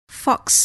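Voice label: "fox", English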